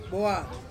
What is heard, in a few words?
"boa", Ansus; four